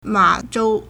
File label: "ma3zhou1", Chinese